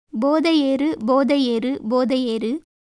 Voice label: Tamil

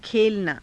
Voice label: "khelanaa", Hindi

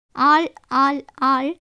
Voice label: Tamil

